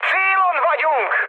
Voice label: near waypoint, mood high, speech hun; War Thunder